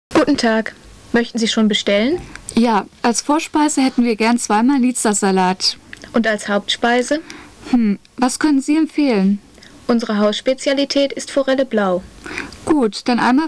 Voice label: German Cafe